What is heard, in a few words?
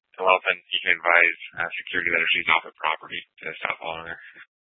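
police dispatch, Scottsdale PD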